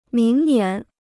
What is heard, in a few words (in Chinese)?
"明年", Chinese